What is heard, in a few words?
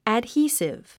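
"adhesive", English word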